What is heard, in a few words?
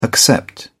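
"accept", English, British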